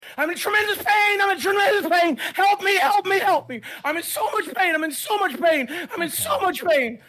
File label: tremendous pain